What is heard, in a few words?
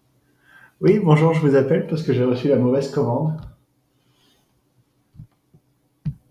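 retail returns recieved wrong product, French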